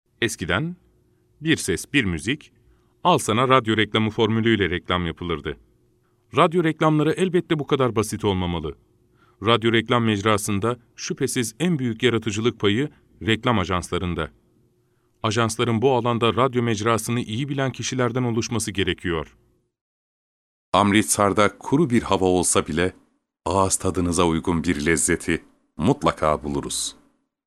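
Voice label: Turkish, male, narration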